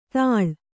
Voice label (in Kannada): "ತಾಳ್", Kannada